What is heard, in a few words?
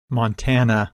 "Montana", English Pronunciation